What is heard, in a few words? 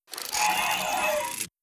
robot avian greetings; Stellaris; synthetic dawn